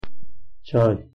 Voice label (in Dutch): "çay", Zazaki; thee-in-andere-talen